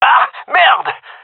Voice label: taking fire friendly, gendermerie male